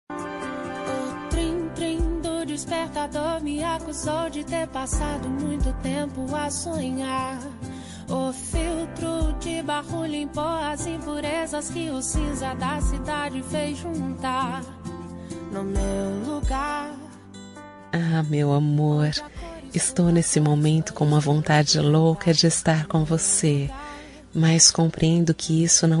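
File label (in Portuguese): romântica amante female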